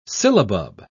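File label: "sillabub", British English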